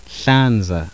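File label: voiceless alveolar fricative, zulu laterals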